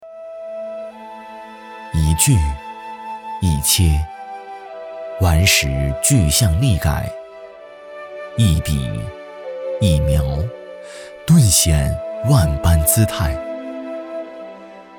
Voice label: 纪录历史厚重博物馆

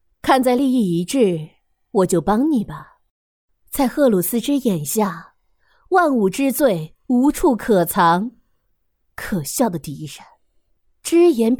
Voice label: 游戏角色御姐; 枢机主教

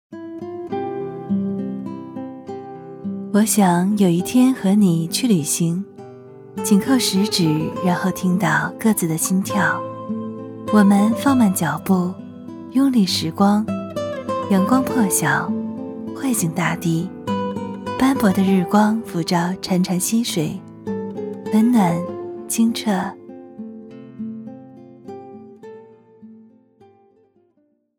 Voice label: 微电影婚礼旁白

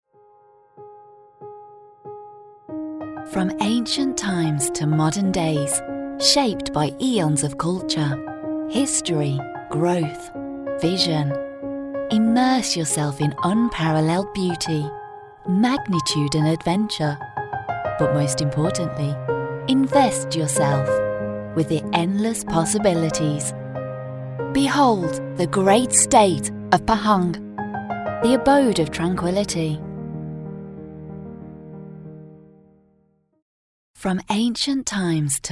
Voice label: 女 英式英文广告 商业广告

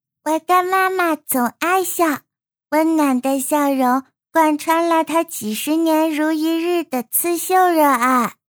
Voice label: 女童

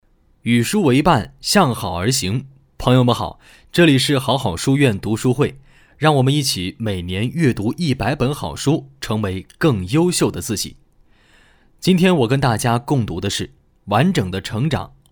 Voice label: 读书栏目

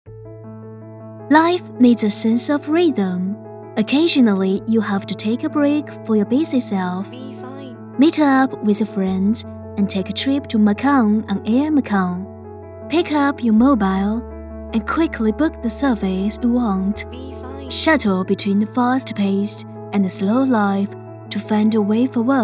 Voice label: 英文宣传 航空; 澳门航空

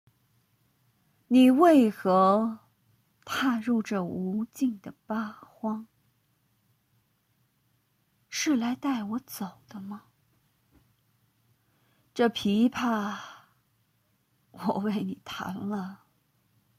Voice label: 女温柔御姐音; 三青鸟

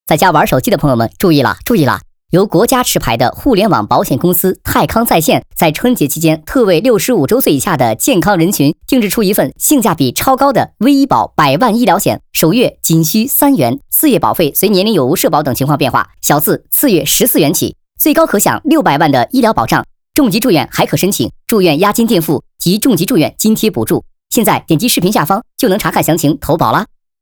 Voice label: 抖音广告语速加快; 泰康

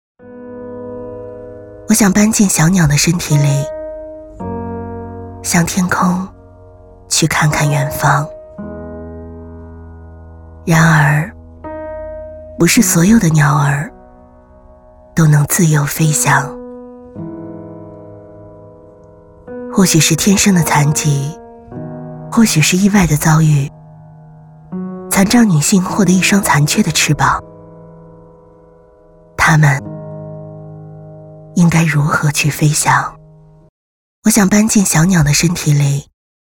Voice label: 走心旁白; 走心旁白女性残障

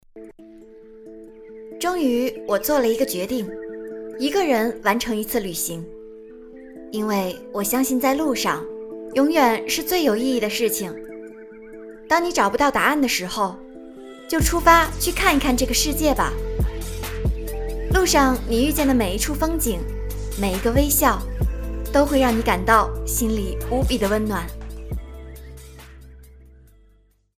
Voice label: 宣传

